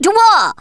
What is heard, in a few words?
"orders", Thespian; Worms Armageddon